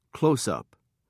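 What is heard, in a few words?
"closeup", British English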